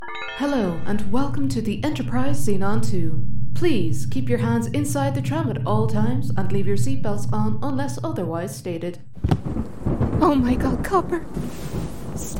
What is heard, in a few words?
Female Voice Actor & Narrator; native Irish voice artist